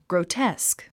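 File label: "grotesque", English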